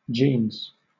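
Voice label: "jeans", British English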